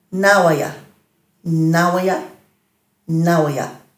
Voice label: "nhaway", Dhurga

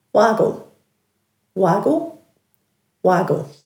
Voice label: "wagal", Dhurga

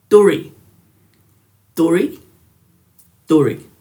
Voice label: "dhuri", Dhurga

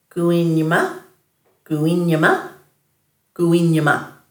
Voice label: "guwinjma", Dhurga

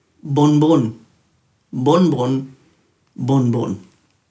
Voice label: "bunbun", Dhurga; tree bark

